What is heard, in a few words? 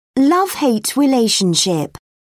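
"love hate relationship", British English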